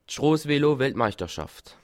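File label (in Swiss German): "strossevelo", Bas Rhin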